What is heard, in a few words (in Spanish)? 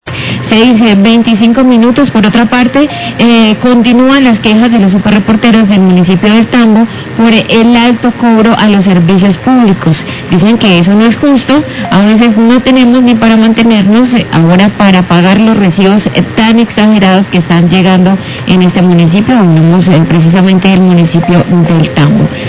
QUEJAS POR ALTO COBRO EN LOS SERVICIOS PÚBLICOS; SÚPER CAUCA; 625AM; Quejas en El Tambo por alto costo en los servicios públicos.